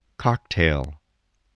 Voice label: "cocktail", voice

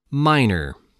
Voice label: "miner", voice, spelling

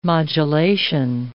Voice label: "modulation", English

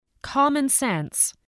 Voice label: "commonsense", voice

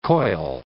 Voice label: "coil", English

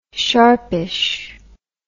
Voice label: "sharpish", English

